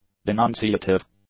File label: "denunciative", english; adjectives